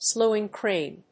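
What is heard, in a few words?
"slewing crane", English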